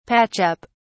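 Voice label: "patch up", British English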